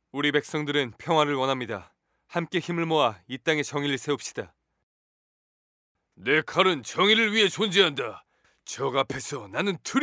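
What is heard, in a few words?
Korean, male, Character, voice over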